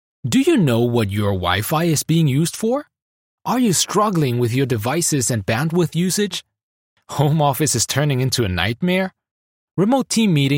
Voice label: english international promos

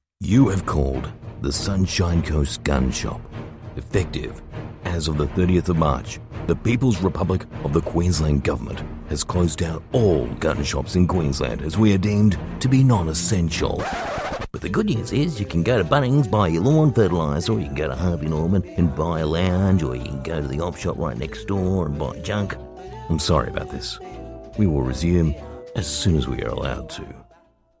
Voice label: english australian ivr

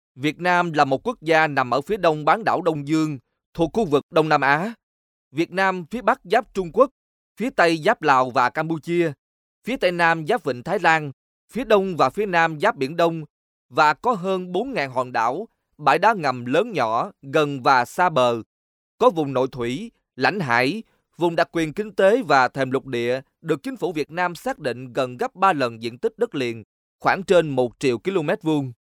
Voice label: Vietnamese documentaries; vietnamese voice over talent

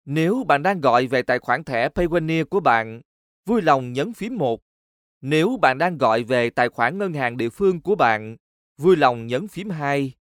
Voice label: vietnamese ivr; vietnamese voice over talent

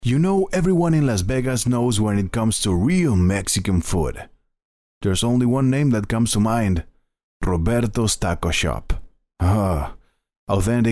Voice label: english commercial; argentinian spanish voice actors

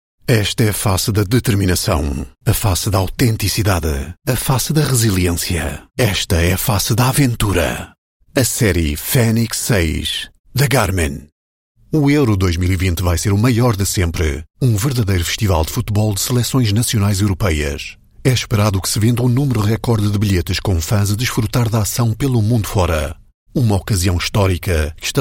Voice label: portuguese commercial

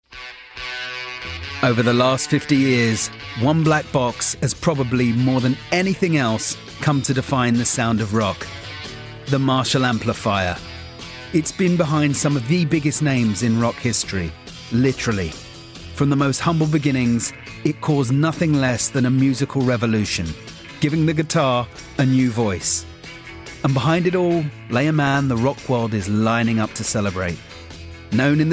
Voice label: english british documentaries

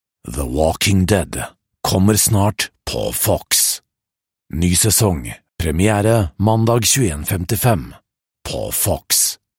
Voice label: norwegian promos

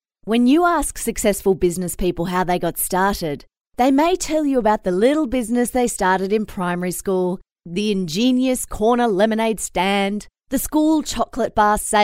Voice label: english australian narration; english australian voice over talent

